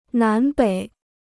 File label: "南北", Chinese